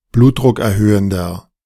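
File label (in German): "blutdruckerhöhender", German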